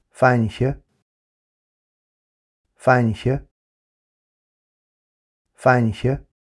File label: "Fainche" Irish names for girls